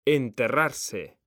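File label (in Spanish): "enterrarse", Spanish; mekanta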